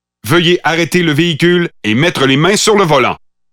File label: Pathfinder French Canadian